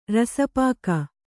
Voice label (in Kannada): "ರಸಪಾಕ", Kannada